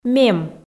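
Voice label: "мем", Komi, female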